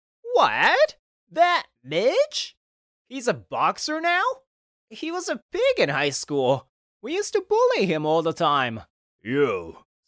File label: foreigner; 複数人の掛け合い(英語)